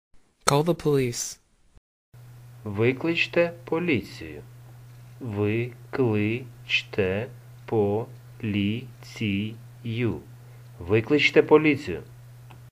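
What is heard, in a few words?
Ukrainian Call the police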